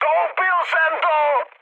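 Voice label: aircraft destroyed, Czech, mood high; War Thunder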